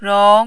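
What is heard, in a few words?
"rong", Mandarin, second tone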